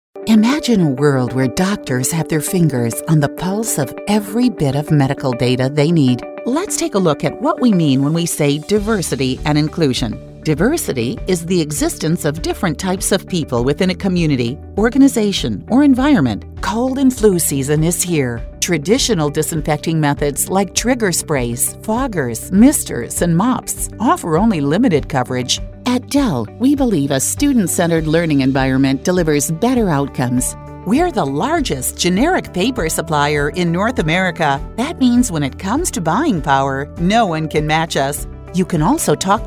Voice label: Corporate